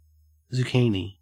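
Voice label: "zucchini", Australian English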